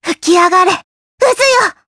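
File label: Vox Skill, voices, Japanese; Lilia; kings raid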